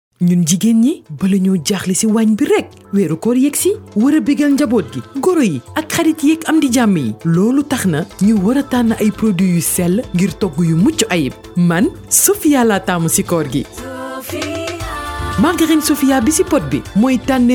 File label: spot radio ramadan vo wolof